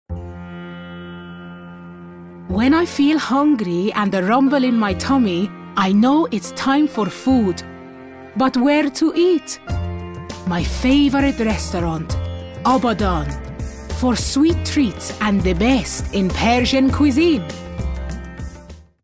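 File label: Farsi Accent English speaking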